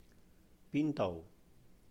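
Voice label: "邊度", Cantonese; Where?; bīn-douh; bin1 dou6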